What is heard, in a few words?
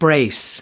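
"brace", US English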